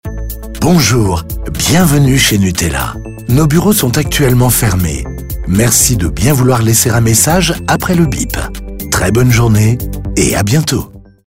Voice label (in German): telefonansagen; nutella